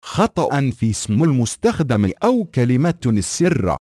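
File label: Username Or Password Incorrect, Arabic; AXEFIAudio; Open Core EFI AMD Aorus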